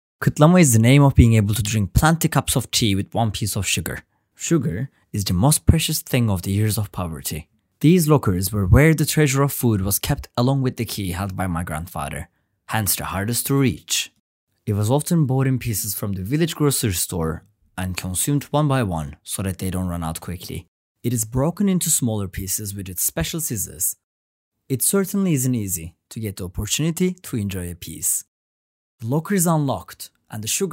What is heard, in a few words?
english